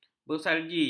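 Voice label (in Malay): "bersalji", Malay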